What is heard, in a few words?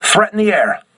voice message air alert, crew ships english